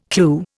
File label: "clue", Indian